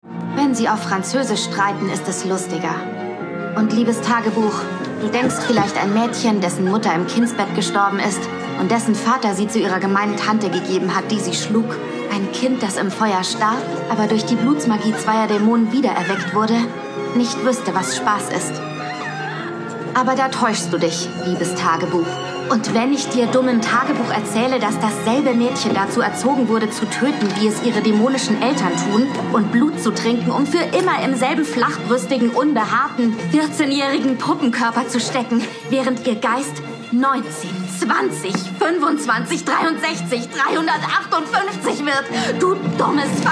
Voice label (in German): Synchron; Interview mit einem Vampir